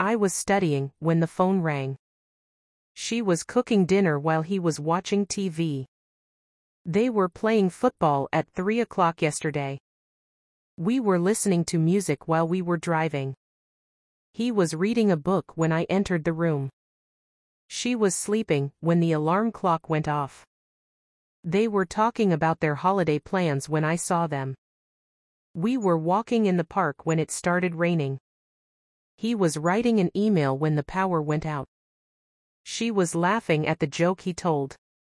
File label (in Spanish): gramatica clase ingles